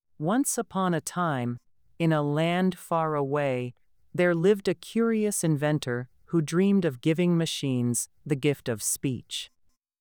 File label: storyteller, expressive; voice-mcp